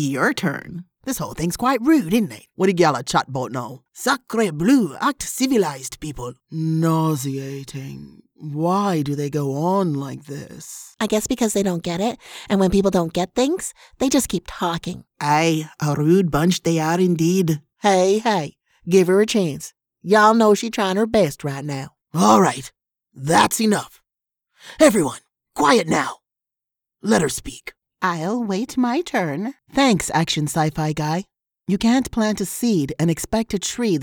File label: Multi Voice Mashup